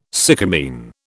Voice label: "sycamine", British